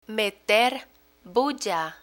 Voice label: meter bulla, Spanish; learn spanish